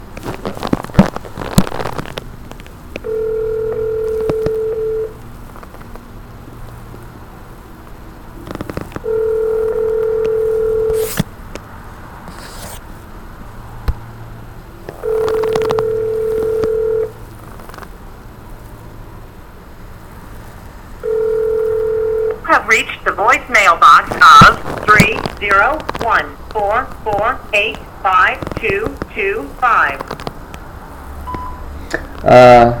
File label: Phone Call